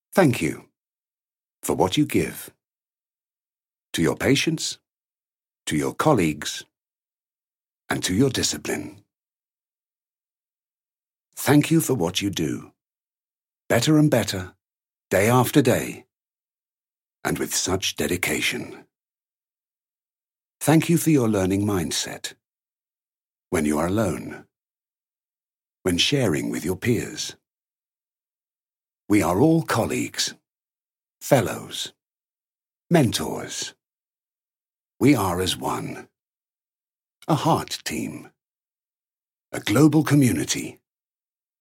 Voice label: French corporate